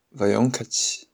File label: you're welcome shanghainese